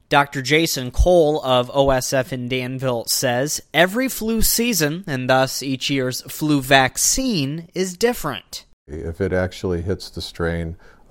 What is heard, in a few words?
radio package